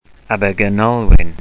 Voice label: "abergynolwyn", wales

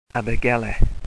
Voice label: "abergele", wales

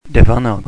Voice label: "defynnog", wales

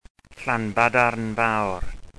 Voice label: "llanbadarn fawr", wales

